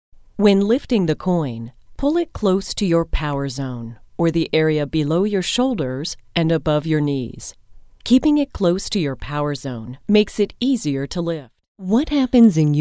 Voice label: Explainer

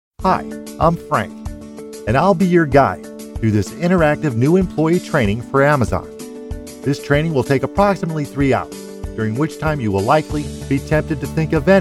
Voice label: eLearning

